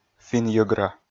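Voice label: "финн-йӧгра", Komi, male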